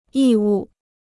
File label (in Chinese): "义务", Chinese